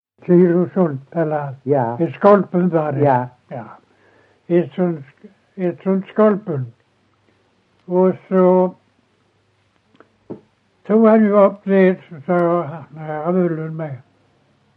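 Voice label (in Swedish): Ekeby Skåne, Svenska sagor dialekter; Folkminnen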